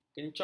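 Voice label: "kencong", Malay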